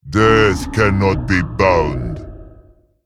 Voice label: Male Vrykul

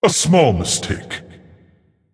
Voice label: Male Titan Forged Emote Oops

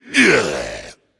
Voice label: Male Death; Darkglare

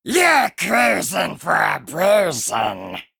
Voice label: Male Imp

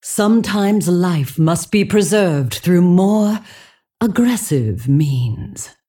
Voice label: Female Dragon Bark; Alexstrasza

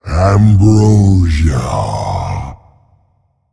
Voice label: Male Elemental Attack

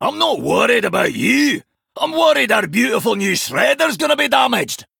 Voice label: Male Dwarf; Tavish; Story Xyrella Mission4 Exchange B

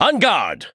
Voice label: Male Chess Piece Chess Open; White King

